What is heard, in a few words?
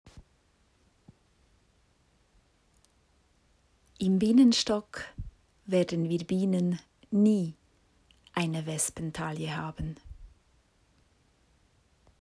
Deutsch